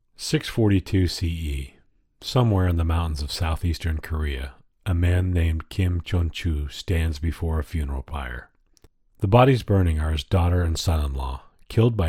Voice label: Documentary